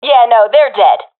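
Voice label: i killed enemy, professional female; Counter Strike Model Pack; CS:GO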